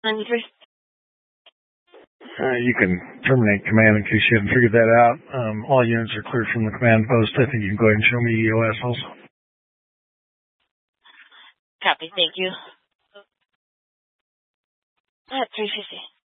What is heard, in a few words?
Sheriff Dispatch, scanner